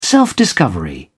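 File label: "self-discovery", British English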